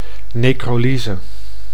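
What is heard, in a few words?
"necrolyse", Dutch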